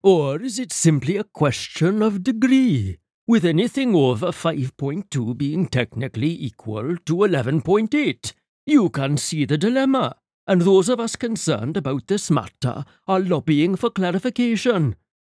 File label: size welsh; ACCENTS & DIALECTS